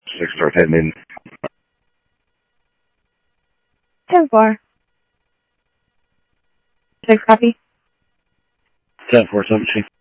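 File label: Sheriff East County, scanner audio trunk; ThompsonPeakScot; MCSO - EAST; Sheriff-EastCnty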